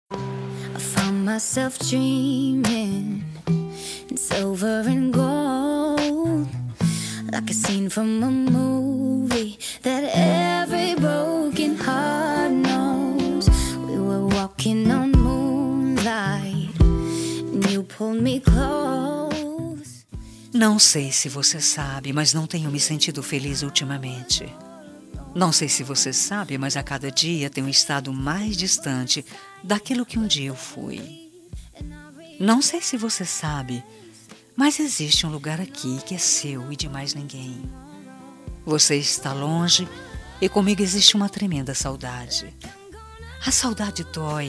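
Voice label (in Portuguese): SAUDADES ROMANTICAS female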